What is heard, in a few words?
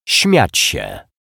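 "smiac sie", Polish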